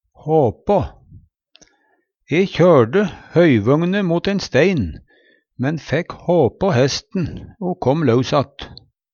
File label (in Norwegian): hapa, Numedalsmål; E kjørde høyvøgne imot ein stein, men fækk håpå hesten o kåm laus att.